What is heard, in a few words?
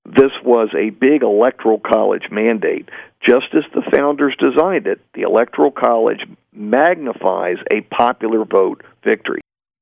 narrative; larry sabato